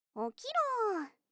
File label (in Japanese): 起きろー, 目覚ましボイス